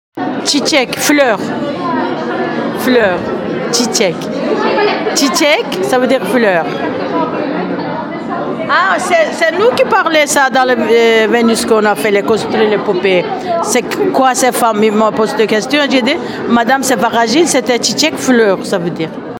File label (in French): femme cicek fleur